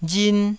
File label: "rin1", taiwanese